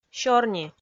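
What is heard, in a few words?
"сёрни", Komi, female; kpv audio dict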